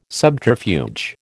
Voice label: "subterfuge", American